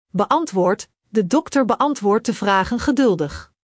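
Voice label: "beantwoordt", Dutch; Spelling Gr6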